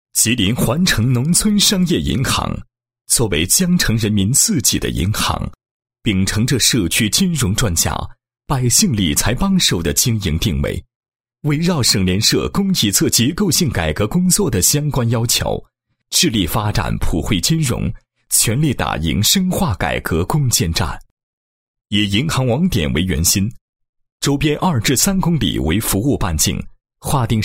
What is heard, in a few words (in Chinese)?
男-企业宣传片; 农村商业银行宣传片介绍